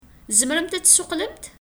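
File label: Kabyle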